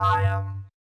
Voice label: I am Your DJ (robot), Yamaha DJX 2B